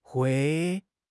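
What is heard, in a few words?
"hui1", Mandarin Chinese; 汉语口语新时代-初级下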